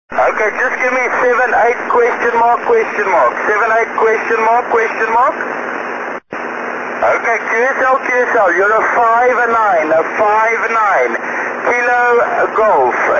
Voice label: on 6m ssb